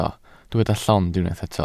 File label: "llond", Welsh